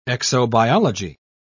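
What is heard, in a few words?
"exobiology", British English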